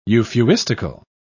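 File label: "euphuistical", British English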